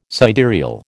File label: "sidereal", American